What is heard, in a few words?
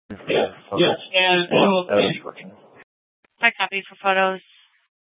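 police dispatch, scanner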